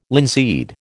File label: "linseed", American